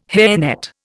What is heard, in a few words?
"hairnet", Indian